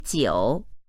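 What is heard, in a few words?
"jiu3", Chinese, female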